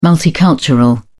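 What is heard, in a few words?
"multicultural", English, British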